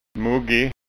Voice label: "muggig", skanska ord

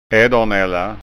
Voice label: "eddornalla", skanska

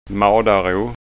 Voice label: "madaro", skanska

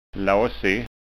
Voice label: "lasig", skanska ord

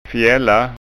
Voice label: "fjalla", skanskaord

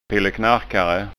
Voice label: "pilleknarkare", skanska ord

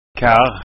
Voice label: "karr", skanska

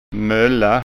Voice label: "molla", skanska

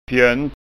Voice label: "fjont", skanska ord

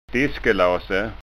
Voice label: "diskelase", skanska

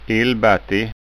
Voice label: "illbatti", skanska

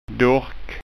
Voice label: "durrk", skanska

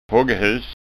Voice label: "hoggehus", skanska ord

